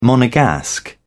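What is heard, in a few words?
"monegasque", English, British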